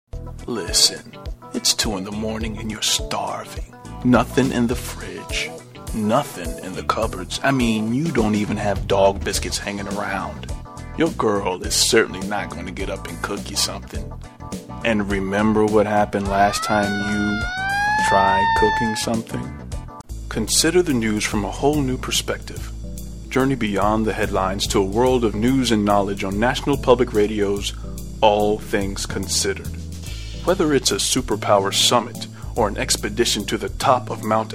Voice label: commercial vo